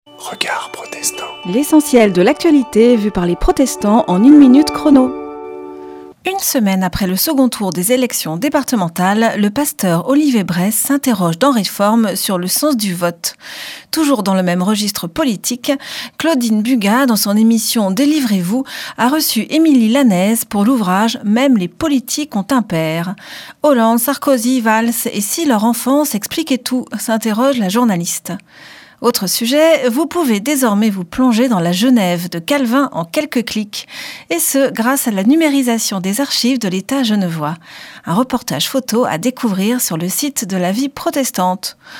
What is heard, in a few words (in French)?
Spot radio; Regards protestants